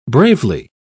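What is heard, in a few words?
"bravely", British English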